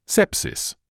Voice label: "sepsis", English